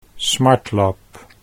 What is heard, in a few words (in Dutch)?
"smartlap", Dutch